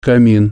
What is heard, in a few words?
"fireplace", Russian